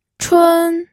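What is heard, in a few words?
"chun", pinyin, first tone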